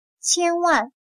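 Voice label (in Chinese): "千万" Mandarin Chinese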